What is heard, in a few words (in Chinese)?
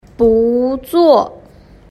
"buzuo", Chinese